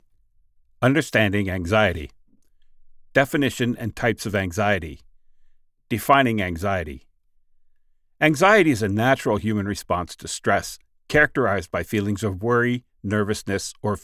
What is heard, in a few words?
Audiobook